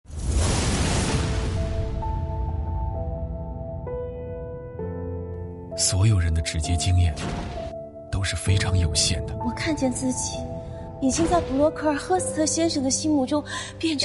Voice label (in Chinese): 男 宣传片; 一本好书